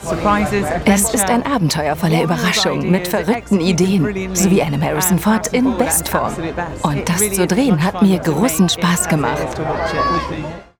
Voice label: German movie trailers

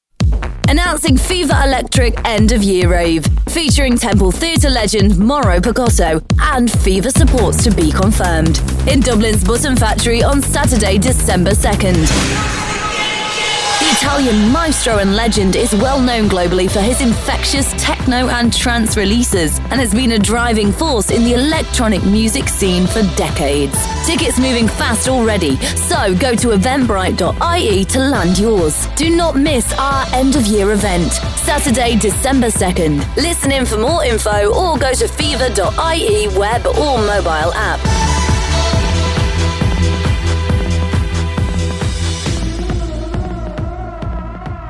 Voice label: english british promos

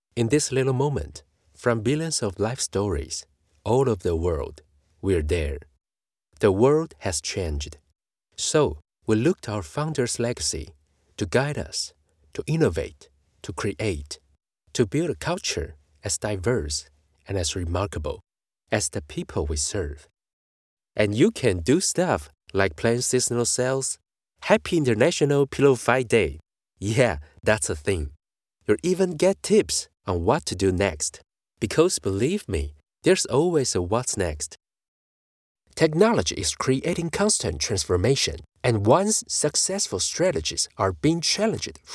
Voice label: english commercial

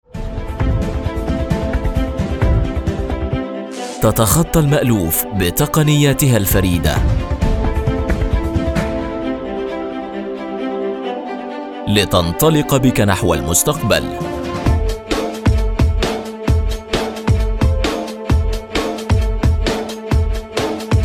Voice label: Arabic commercial

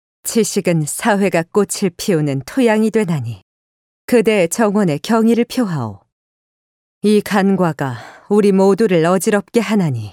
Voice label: korean video games

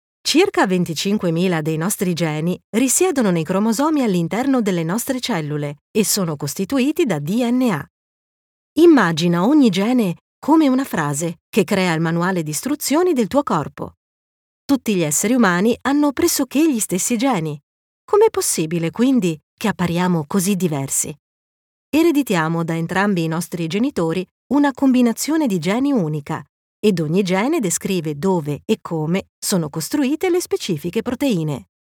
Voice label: italian narration

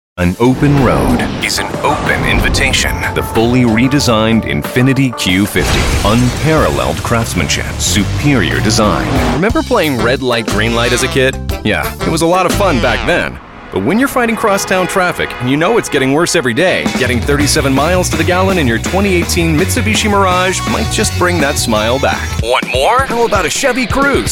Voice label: english american automotive

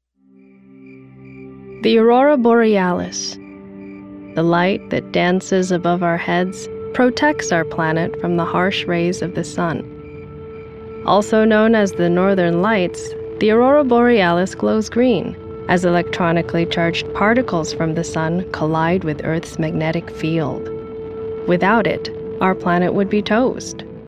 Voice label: English American documentaries

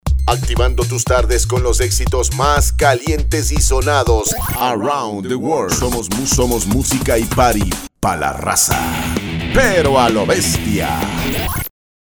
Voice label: spanish latin american radio imaging

